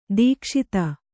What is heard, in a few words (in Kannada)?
"ದಕ್ಷತೆ", Kannada